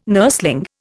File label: "nurseling", Indian